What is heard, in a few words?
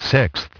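"sixth", English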